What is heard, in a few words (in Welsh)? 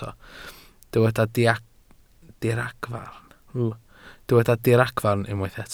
"diragfarn" Welsh